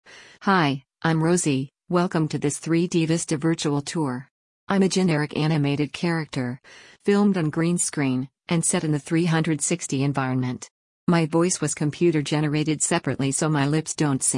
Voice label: Woman Full Vo